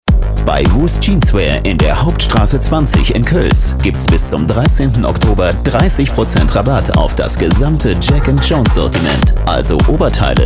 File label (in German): InStore Spot